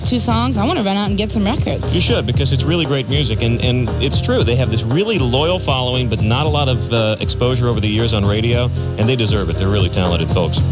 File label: announcer